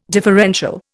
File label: "differential", Indian